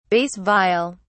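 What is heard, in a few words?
"bass viol", British English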